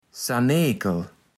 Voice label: "ssanekel", Platt

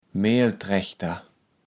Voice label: "Mehlträchter", kaevels platt

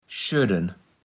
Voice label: "schödden", Platt

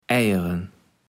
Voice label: "Eijer", kaevels platt

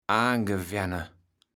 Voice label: "aangewenne", kaevels platt

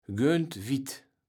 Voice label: "göntwit", kaevels platt

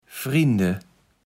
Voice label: "Frinde", Platt

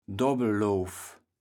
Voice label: "Dobbelloof", kaevels platt

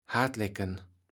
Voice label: "hartleken", kaevels platt

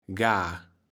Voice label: "gar", kaevels platt

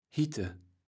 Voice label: "hitte", kaevels platt

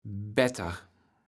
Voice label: "better", kaevels platt

